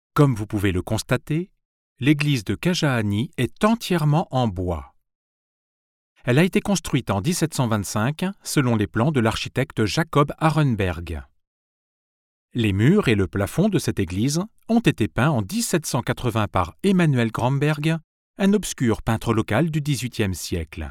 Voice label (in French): voix off audioguide; kajaani